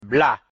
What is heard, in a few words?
"bla", mina; Syllabes attestées